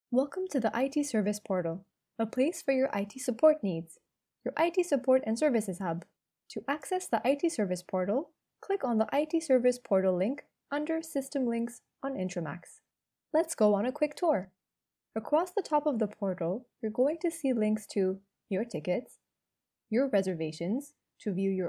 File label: English (US), Female